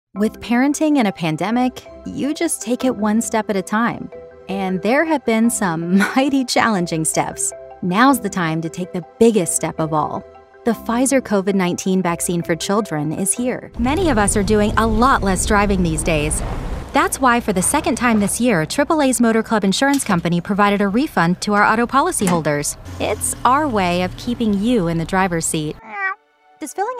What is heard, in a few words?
Commercial Compilation